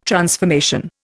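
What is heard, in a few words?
"transformation", Indian English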